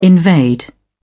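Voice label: "invade", English, uk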